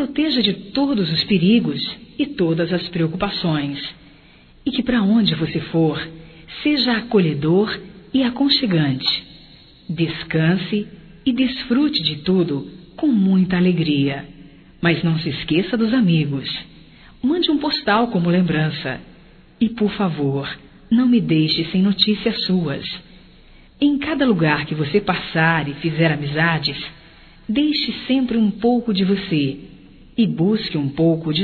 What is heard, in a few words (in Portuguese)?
Boa Viagem Geral Feminino; Despedidas e boas vindas; Telemensagens 2017